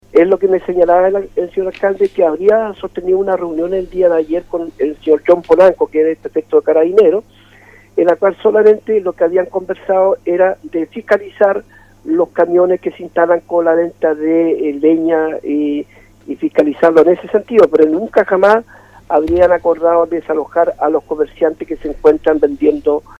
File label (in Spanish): CUÑA; PERSA CACHARRO